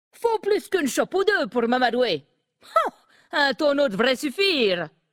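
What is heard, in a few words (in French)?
French Femme Flirt; Nain sombrefer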